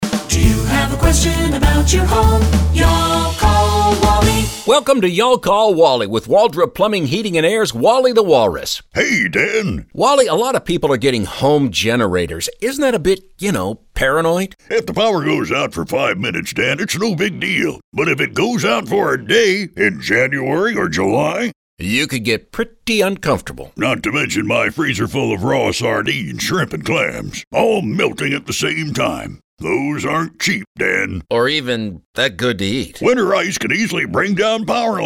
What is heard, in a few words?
radio ads; Plumbing HVAC Generator Paranoia